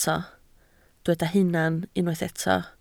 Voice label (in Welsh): "hunan" Welsh